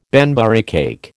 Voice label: "Banbury cake", American

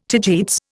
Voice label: "tagetes", Indian

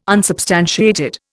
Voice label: "unsubstantiated", Indian

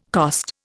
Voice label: "cost", Indian

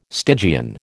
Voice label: "Stygian", American

